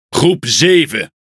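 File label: Speech, Dutch; Pilots Bomber; World Of Warships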